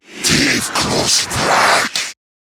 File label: Attack, en; Zariman Wraith